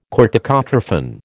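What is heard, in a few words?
"corticotrophin", American English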